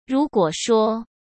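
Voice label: "如果说", Mandarin Chinese; hsk; chinese-flashcards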